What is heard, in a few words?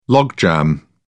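"logjam", British English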